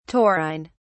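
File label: "taurine", British English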